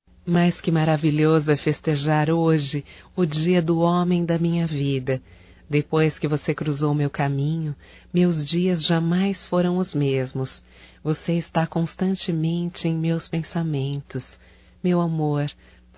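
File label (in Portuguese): romântico female, Telemensagens Aniversarios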